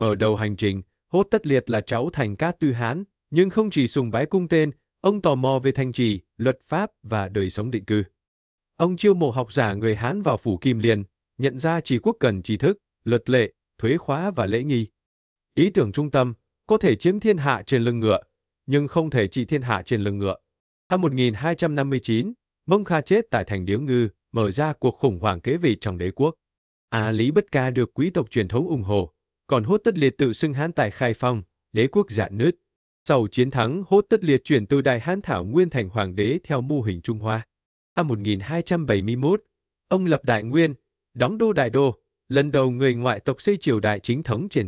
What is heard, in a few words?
narration